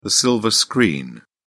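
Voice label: "silver screen", British English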